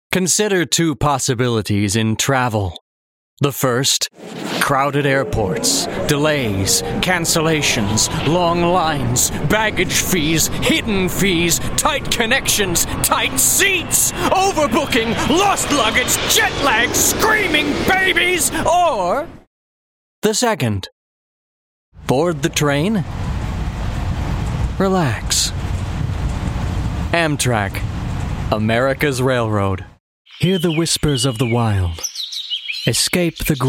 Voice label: Commercial Demo